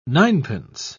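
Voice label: "ninepence", British English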